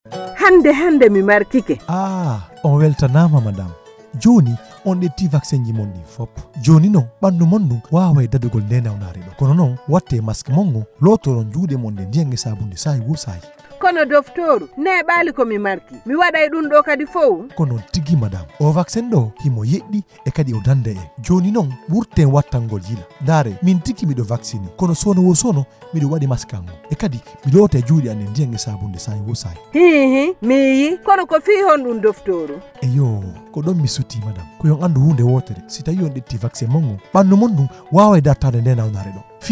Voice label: SPOT COVAX, POULAR